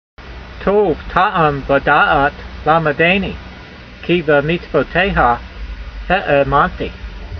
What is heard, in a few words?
voice, hebrew language